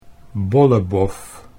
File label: "bollebof", Dutch